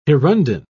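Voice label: "hirundine", British English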